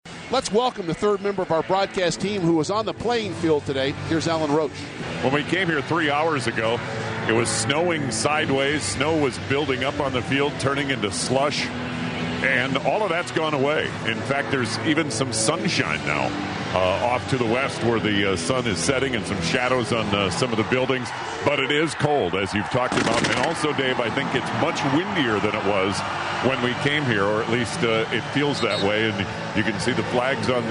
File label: radio sideline report